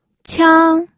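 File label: "qiang", Mandarin Chinese, first tone; pinyin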